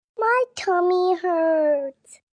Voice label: Tummy Hurts, Hurt, 1 child, 3 yrs; Pain; VoiceBox

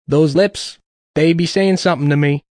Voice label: Those Lips Be Sayin, Male; Sex Sound Effects

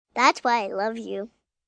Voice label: Thats Why I Love You, Endearment, 1 girl, 5 yrs

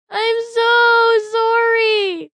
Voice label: Im So Sorry, Female, child, whine; Talking; VoiceBox

